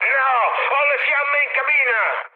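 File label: Italian on fire mood high, speech; War Thunder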